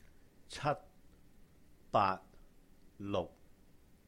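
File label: "七,八,六", Cantonese; FAST L2 Making New Friends; No. 7, 8 ,6; chāt,baat,luhk; cat1, baat3, luk6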